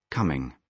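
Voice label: "coming", British English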